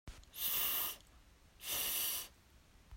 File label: th unvoiced